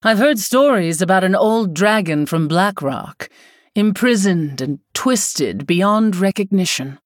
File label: Female Human